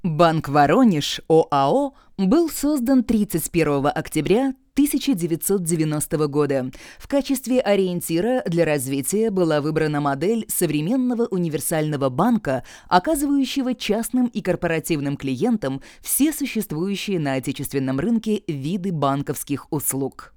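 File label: delovoy Russian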